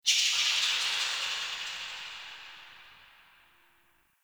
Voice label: Root Cave Distant Chatter; Ambience; One Offs; Neathuns Root Cave